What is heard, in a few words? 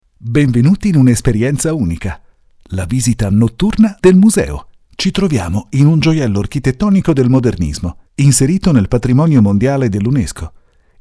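Italian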